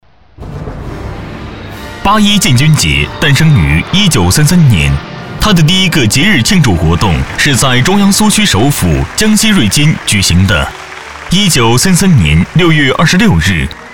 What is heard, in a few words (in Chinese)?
建军节宣传